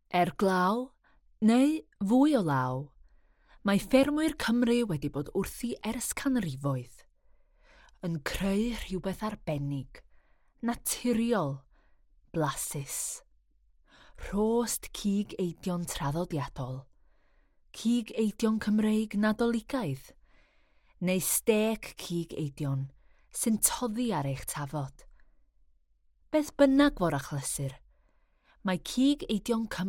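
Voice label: CY General, Welsh; GoLocalise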